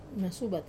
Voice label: "masubat", muddy, Wamesa